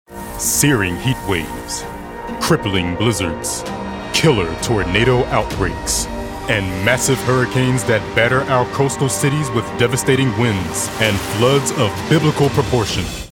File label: narration voice over, Weather / Serious, Powerful